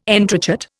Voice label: "entrechat", Indian